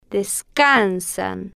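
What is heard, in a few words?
"des-can-san", Spanish, female, slow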